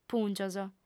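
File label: "pùngios", female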